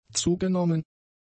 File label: "zugenommen", German; adjectives